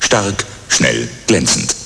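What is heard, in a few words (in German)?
"stark", German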